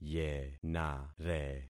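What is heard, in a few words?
"yenare", concatenated syllables; Affixing experiment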